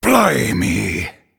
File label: Male Night Elf Oops